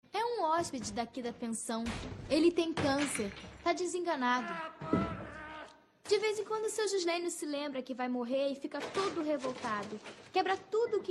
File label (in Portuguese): Voz Mulher da Pensão